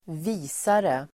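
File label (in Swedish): "visare", Swedish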